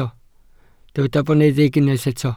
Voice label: "boneddig", welsh

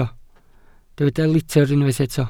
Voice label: "litr", Welsh

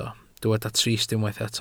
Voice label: "trist", Welsh

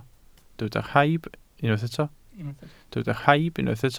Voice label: "rhaib", welsh

